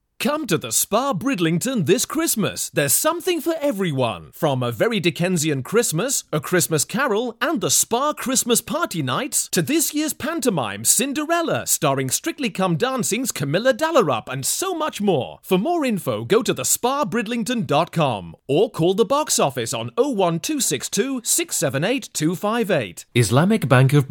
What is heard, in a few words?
RADIO TV COMMERCIALS